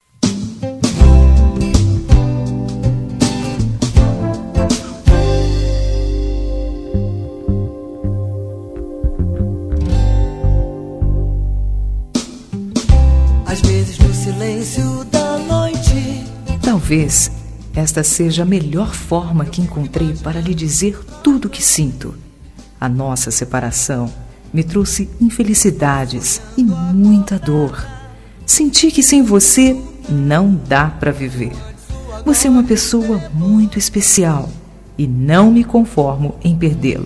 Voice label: RECONCILIACAO FEM; Telemensagens; ROM RECONCILIACAO

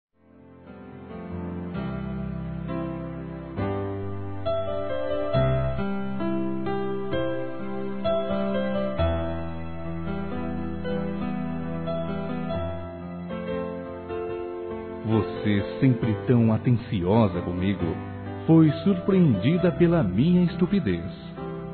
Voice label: POR NÃO TE-LA TRATADO BEM, masc; Telemensagens 2017

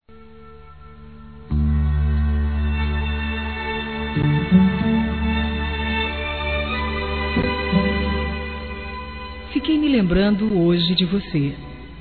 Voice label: SAUDADE GERAL, fem; Telemensagens; Saudades

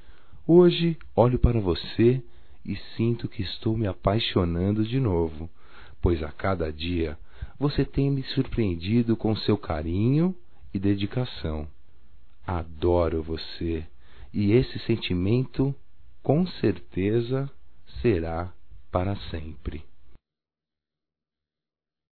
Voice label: NOSSO AMOR AMADURECEU (M); Telemensagens 2017; Declaração de amor

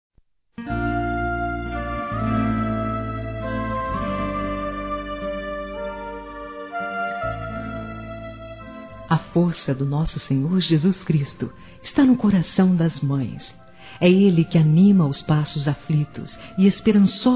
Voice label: CONFORTO P MÃE GERAL F; Telemensagens 2017

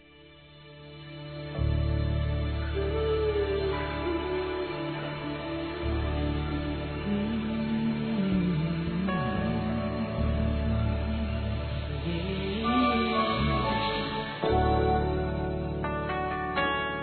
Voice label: Aniversário Sobrinho Masculino

